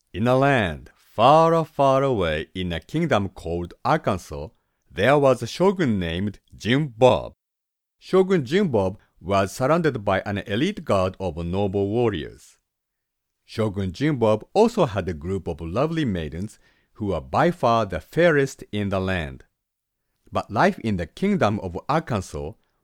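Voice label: male, Japanese, accented English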